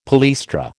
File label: "palaestra", American